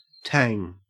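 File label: "tang", Australian English; pronunciation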